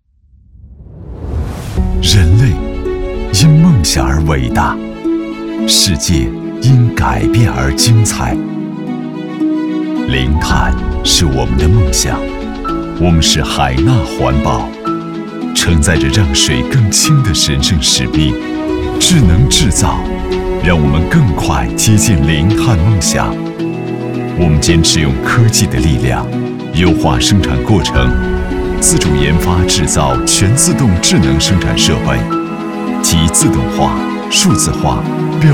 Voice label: 大气深情, 男; 海纳环保

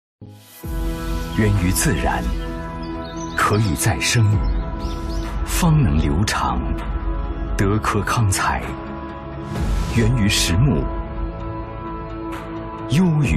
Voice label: 男; 德科康材

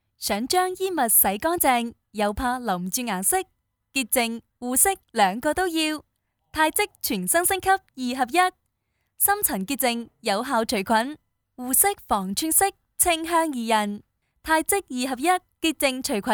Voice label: Y女 粤语广告; 汰渍

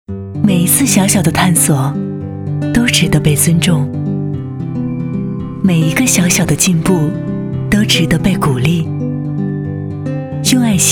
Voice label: 女, 高端广告

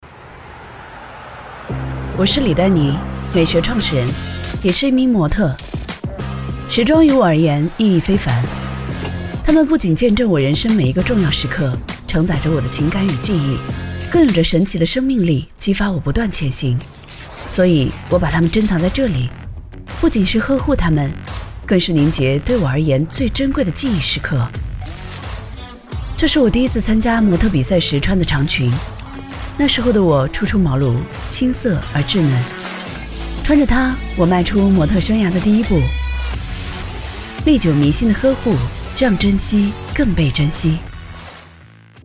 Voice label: B女 广告 时尚素人内心独白 品牌香氛 三角色 时尚宁静; 宁静的力量

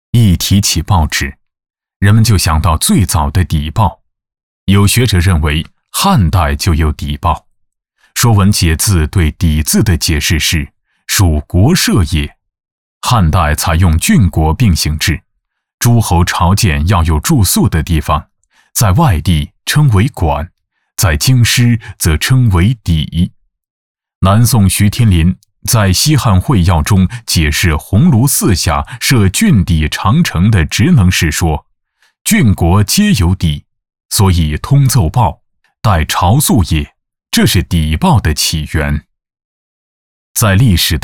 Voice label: A男 纪录片 记录 叙述; 中国报业

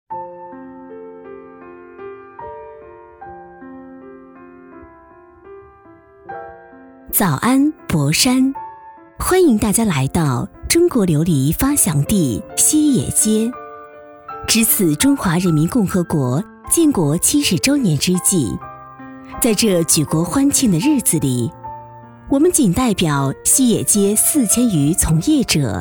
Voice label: 女 轻缓舒柔专题

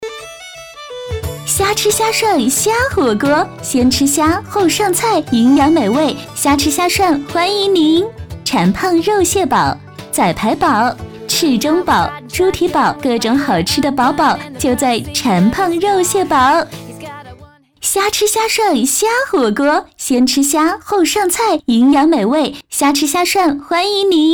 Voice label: 女 火锅广告; 虾吃虾涮虾

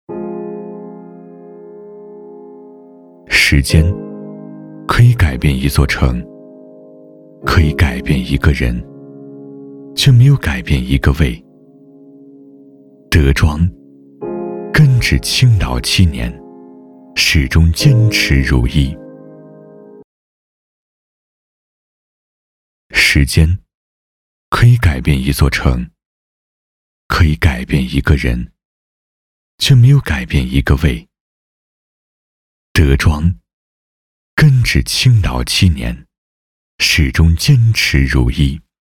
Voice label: 走心旁白, 男

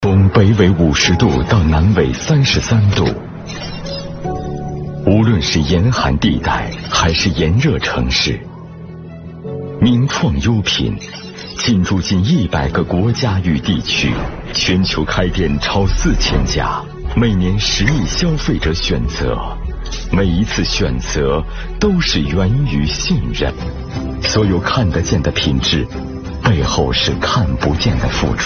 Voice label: 男; 名创优品

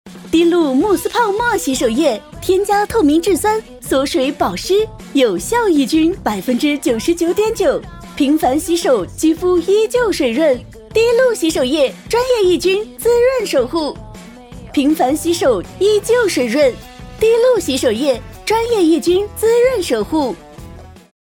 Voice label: 女 广告 慕斯泡沫洗手液; 滴露

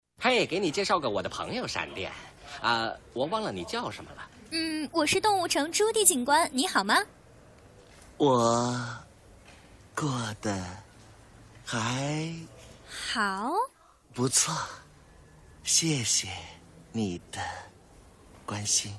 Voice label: 女 角色; 疯狂动物成; 朱迪

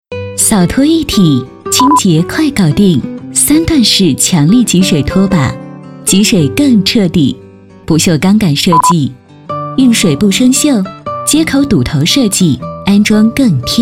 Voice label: 女 广告 拖把

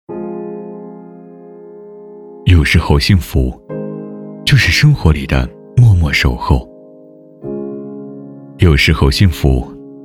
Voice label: B男 公益 广告 幸福

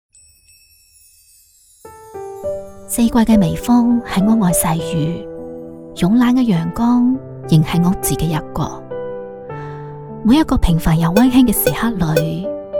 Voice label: 粤语 素人感独白

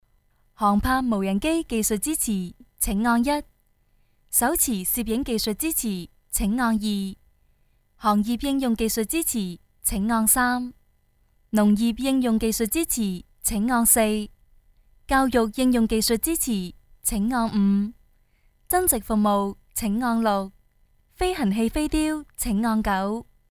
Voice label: 粤语 彩铃, 女

